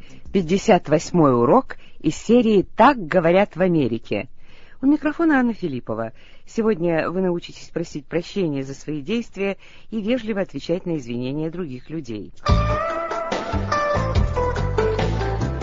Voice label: russian english